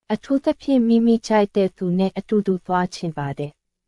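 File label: Myanmar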